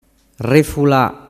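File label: "refula", Sicilian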